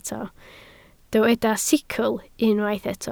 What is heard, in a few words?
"sicl", Welsh